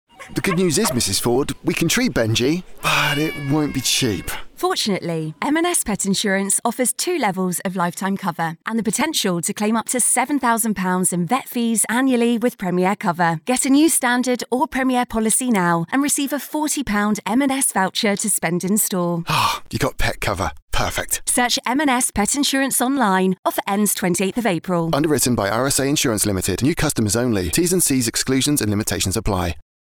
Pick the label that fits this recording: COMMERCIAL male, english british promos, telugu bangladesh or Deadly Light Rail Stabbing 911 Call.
english british promos